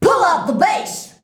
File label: "PULL UP", SHOUTS; Best Service; Hyper Dance